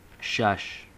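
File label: "shush", American English; pronunciations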